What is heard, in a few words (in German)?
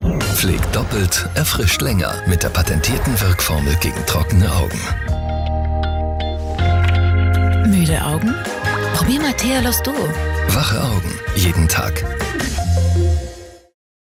DE Werbung; Thealoz Duo